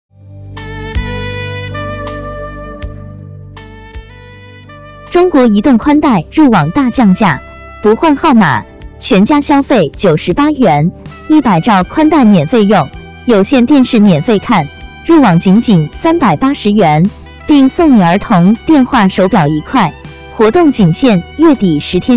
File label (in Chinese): 女-活动促销